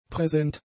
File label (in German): "präsent", German; adjectives